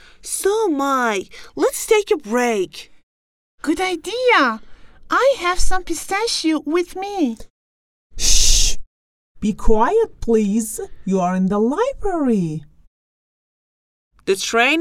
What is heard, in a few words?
conversation